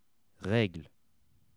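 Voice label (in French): "regles", French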